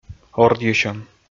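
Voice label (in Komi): "ордйысьӧм", Komi, male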